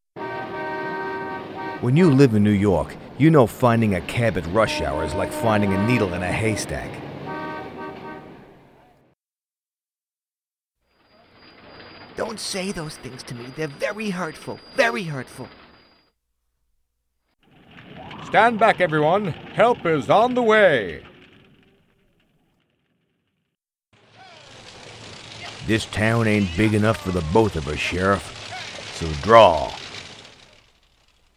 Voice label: Characters Reel